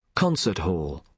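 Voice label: "concert hall", British English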